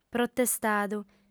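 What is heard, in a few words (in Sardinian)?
"protestadu", female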